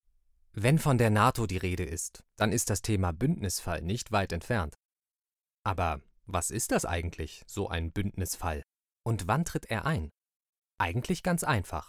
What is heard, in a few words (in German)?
Erklaerfilm; Was ist die Nato; LOFT VOICES